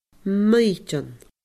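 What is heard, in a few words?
"maighdeann", Scottish Gaelic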